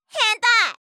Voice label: ヘンタイ！, ASMR, 相づち・反応系【ネガティブ】

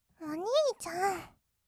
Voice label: "おにいちゃん", kawaii voice; ASMR; 二人称

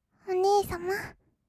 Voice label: お姉様, kawaii voice; ASMR; 二人称

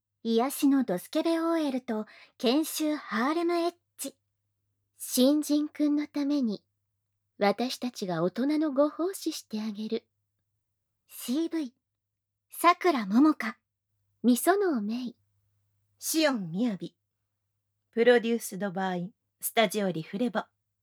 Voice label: タイトルコール, ASMR

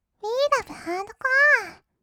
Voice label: うぃーらぶはーどこあ, kawaii voice; 音ゲー

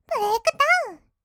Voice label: "ブレイクダウン", kawaii voice; ASMR; 1200 kawaii voice!!; 音ゲー

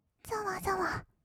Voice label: ざわざわ, kawaii voice; ASMR; 色々なワンショット

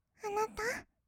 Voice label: "あなた", kawaii voice; ASMR; 二人称

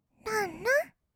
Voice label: "らんらんっ", kawaii voice; ASMR; 色々なワンショット

